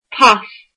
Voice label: passport, irish